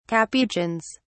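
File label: "capuchins", English, British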